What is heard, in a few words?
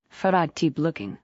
fem